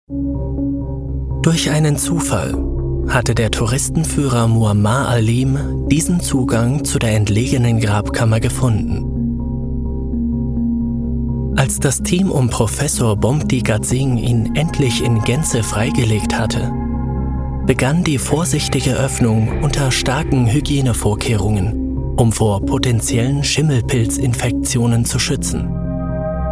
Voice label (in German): Sprecher Doku